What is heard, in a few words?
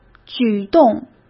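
"举动", Mandarin Chinese; chinese-flashcards; hsk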